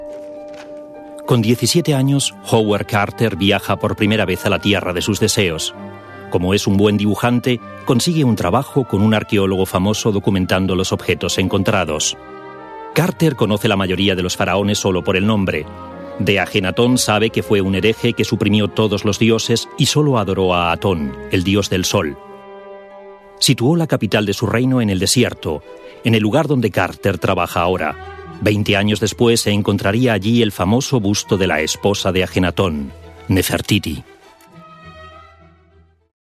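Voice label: Spanish, Kommentar Neutral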